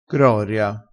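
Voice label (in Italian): "groria", crespinese dialettale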